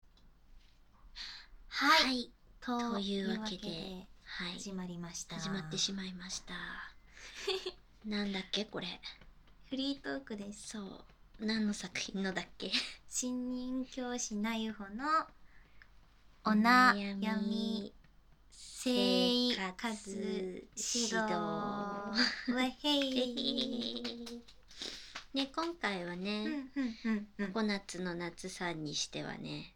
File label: FreeTalk, ASMR